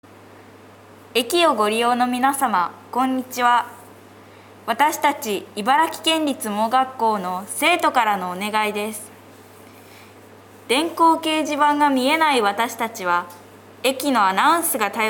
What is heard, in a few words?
Announce